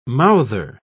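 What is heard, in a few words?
"mouther", British English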